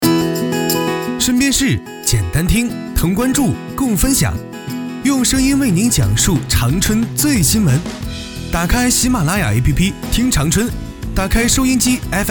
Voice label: 新闻广播 子栏目 宣; 长春

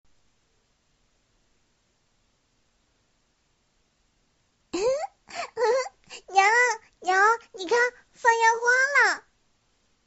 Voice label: 萝莉

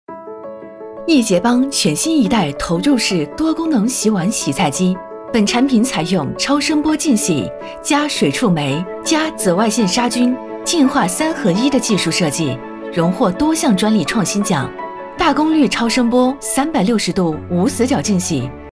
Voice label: 宣传产品

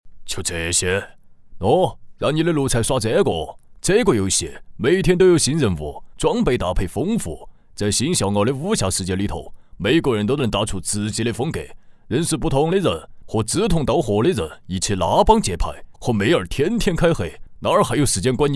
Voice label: 四川话; 花猫

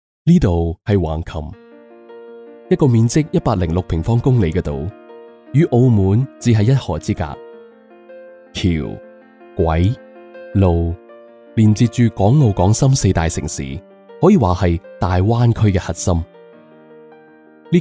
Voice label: 粤语 城市宣传; 横琴

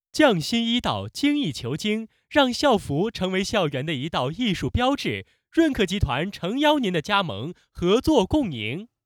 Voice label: 青春活力

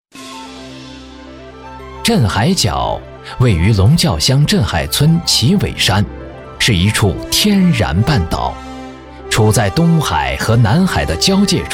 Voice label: 解说; 镇海角

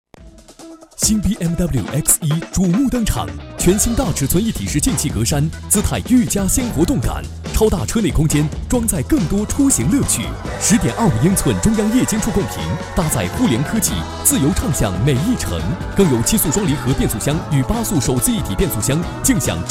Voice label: 品牌广告活力动感节奏

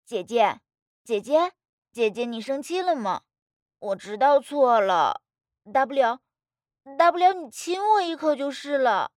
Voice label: 正太音

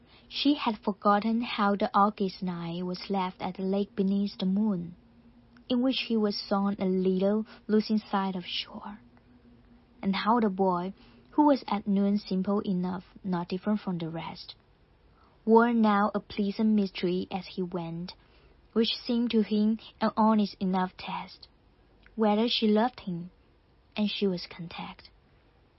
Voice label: 英文样本

